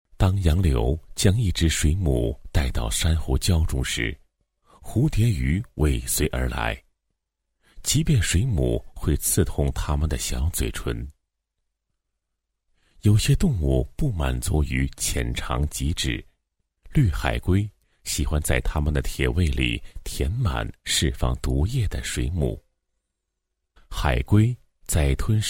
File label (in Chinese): 男 专题 海洋 舌尖风格 柔和自然记录风格, 国语男声配音